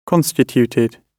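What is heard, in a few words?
"constituted", British English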